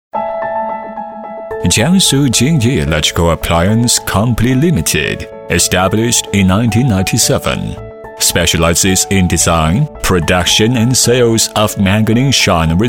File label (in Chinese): 英语男, 科技专题; 京沂电器